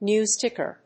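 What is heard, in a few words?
"News ticker", English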